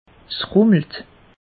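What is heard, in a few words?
"rummelt", Alsatian; meteo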